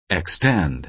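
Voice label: "extend", American English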